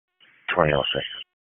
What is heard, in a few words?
PS TAC, p25